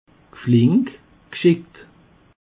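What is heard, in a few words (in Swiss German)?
"flink", Alsatian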